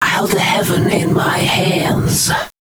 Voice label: male, voice